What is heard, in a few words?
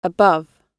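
"above", British English; Oxford Audio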